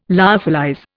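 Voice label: "lyophilize", Indian English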